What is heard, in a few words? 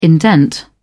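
"indent", British English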